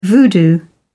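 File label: "voodoo", British English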